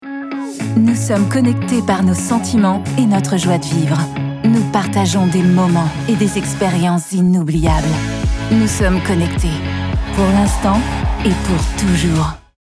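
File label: french tv spot; google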